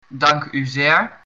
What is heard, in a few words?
thanks, dutch